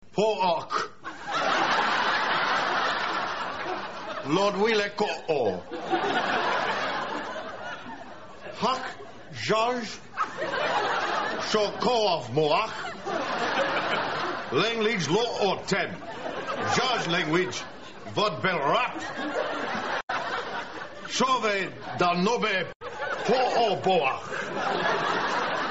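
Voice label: klingon speech, klingon speech and the translation; This MP3 contains only the klingon speech and the translat; Frasier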